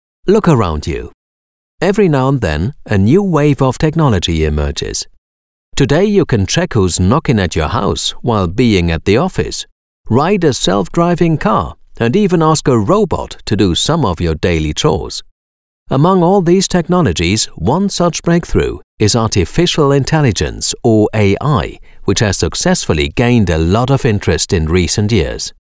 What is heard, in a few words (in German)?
englisch; AI